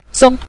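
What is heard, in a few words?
"song", Mandarin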